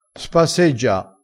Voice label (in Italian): "spasseggia", crespinese